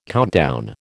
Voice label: "countdown", American